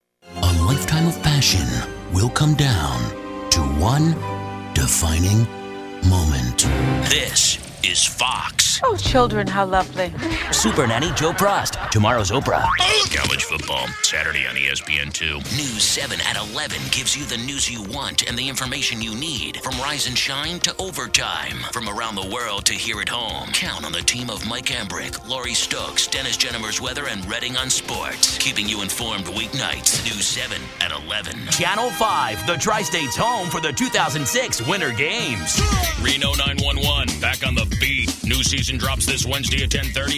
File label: promo, male voice talent